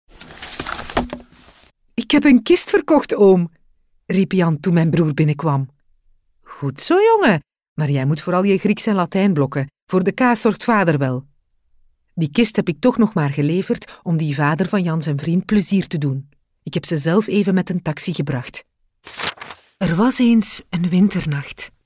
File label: audiobooks